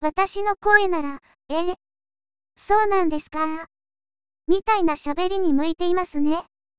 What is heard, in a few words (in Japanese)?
女声優ゆるめ、しゃべり内容特性説明